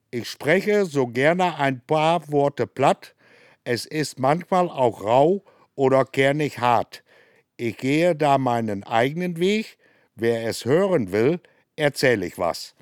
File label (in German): Hochdeutsch; Stiftsplatz; Nottuln; Plattdeutscher Weg